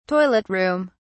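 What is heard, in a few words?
"toilet room", British English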